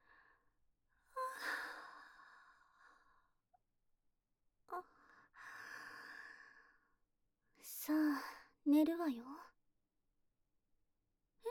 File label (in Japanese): システムボイス おやすみなさいボイス; ASMR; 輝夜